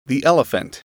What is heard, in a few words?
"elephant", English